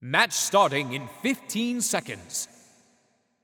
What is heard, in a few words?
Announcer Town Crier Match Begin